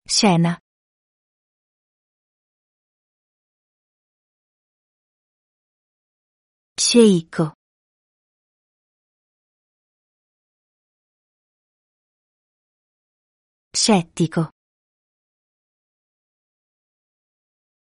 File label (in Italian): dettato pronuncia c g sc